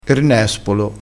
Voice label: "nespolo", crespinese

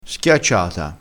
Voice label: "schiacciata", crespinese

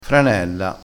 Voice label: "franella", dialettale crespinese

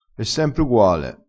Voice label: "megliora", crespinese

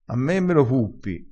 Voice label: "a me me lo puppi", Crespinese

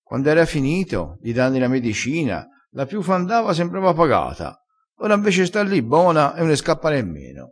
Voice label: "nda sembra pagato", crespinese

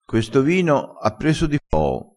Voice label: "prende di foo", crespinese

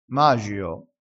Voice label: "magio", dialettale crespinese

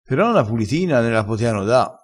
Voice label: "e ninna", crespinese, vocabolario dialettale

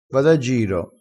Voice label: "a giro", Crespinese

